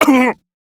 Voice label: Pain, Male, en; Railjack Crew; Arbiters Hexis